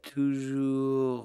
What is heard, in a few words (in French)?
toujours, PSOLA